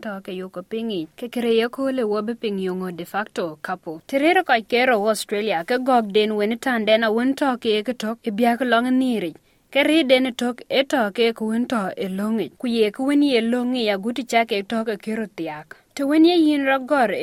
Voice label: dinka, podcast; What does it mean to be a de facto couple?; Tɛ rɛɛr kɔc ke rou e Australia, ke cieŋ dɛn ee tɔ e lɔŋ yic agut tɛ ken ke rot thiäk.; SBS Dinka